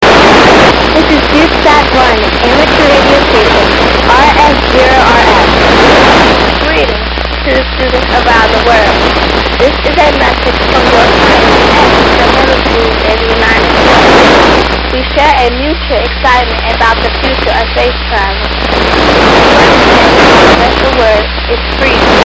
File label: voice message; SUITSAT-1